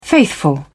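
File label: "faithful", British English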